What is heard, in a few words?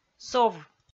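"сов", Komi-Zyrian, female; kpv audio dict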